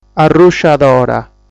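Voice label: "Arrusciadora", Sardinian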